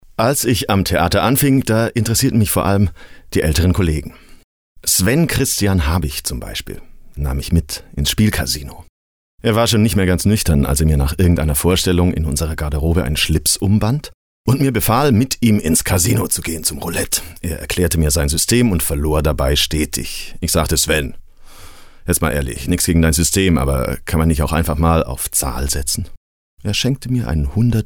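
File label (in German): erzaehlung, deutsch männer; sprecher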